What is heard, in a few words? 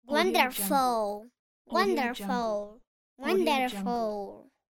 little girl voice wonderful